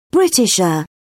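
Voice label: "britisher", British English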